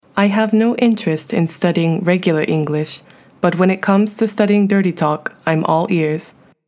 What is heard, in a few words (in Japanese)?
淫語例文